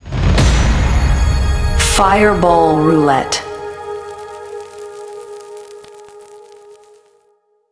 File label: woman announce; fireball; Fireball Roulette